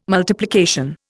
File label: "multiplication", Indian